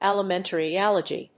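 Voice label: "alimentary allegy", English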